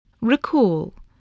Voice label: "recall", english

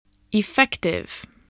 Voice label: "effective", English

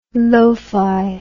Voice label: "lofi"

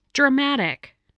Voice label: "dramatic", spelling

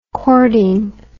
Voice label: "chording", English

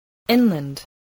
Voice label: "inland", voice spelling

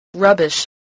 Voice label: "rubbish", English spelling

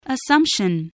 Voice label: "assumption", english

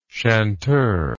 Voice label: "chanteur", English

